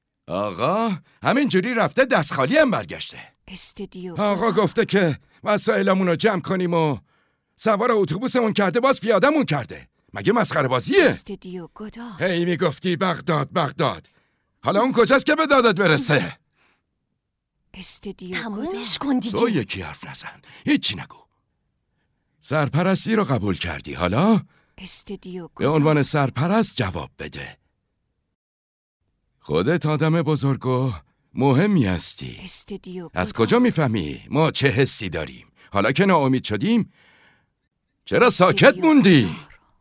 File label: dub